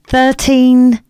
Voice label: announcements female